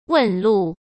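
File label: "问路", Mandarin Chinese; chinese-flashcards; hsk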